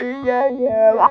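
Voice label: effects talk, Funky Vocals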